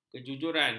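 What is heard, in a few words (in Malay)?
"kejujuran", Malay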